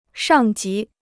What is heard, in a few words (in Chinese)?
"上级", Chinese